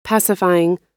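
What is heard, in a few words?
"pacifying", American English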